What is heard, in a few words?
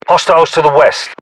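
threat infantry west, British; battlechatter; localized english; uk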